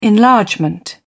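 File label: "enlargement", British English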